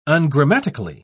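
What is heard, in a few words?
"ungrammatically", British English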